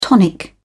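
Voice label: "tonic", British English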